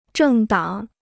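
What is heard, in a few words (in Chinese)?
"政党" Chinese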